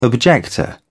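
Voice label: "objector", British English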